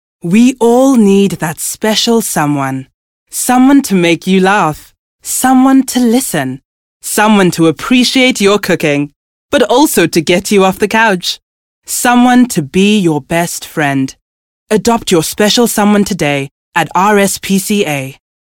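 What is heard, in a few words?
South African English, Commercial; GoLocalise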